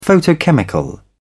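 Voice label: "photochemical", British English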